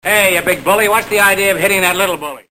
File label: bully